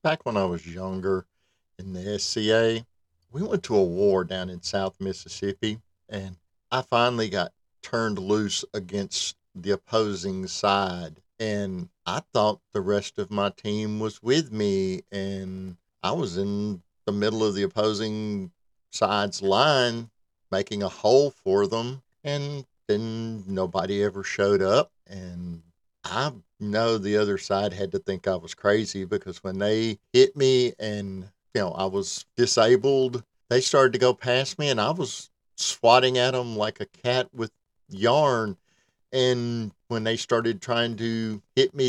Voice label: Voice Over Southern Voice Southern Voice Actor; Modern Bible Story App

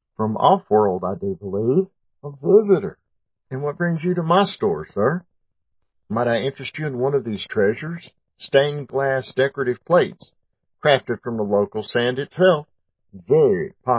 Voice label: Audio Drama, Shopkeeper; 'The Sojourn`

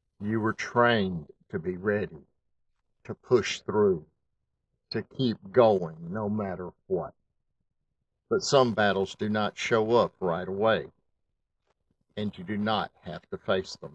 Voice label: Narrator; Serving Those, Who Serve Us

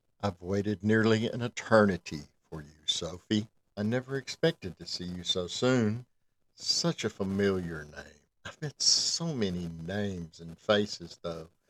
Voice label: Voiceover, The Unfathomed Voice Sample; 'The Other Side is Calling'

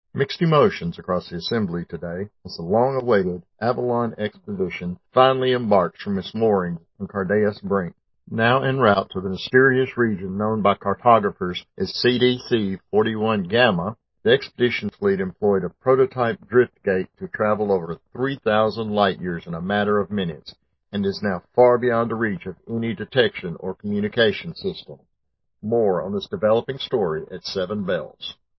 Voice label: News Announcer, Audio Drama; 'The Sojourn` Audio Drama'

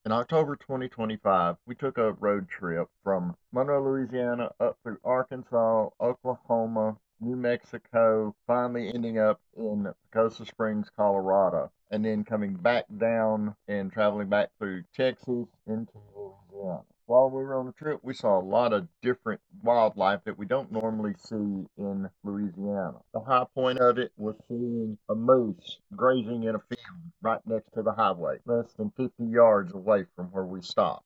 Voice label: English Voice; Voice Remixing; AI Training Project

